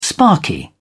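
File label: "sparky", British English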